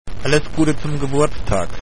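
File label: happy birthday german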